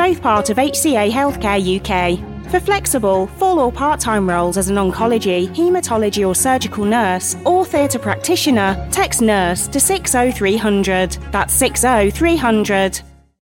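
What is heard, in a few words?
nursin mild brummie, Black British Female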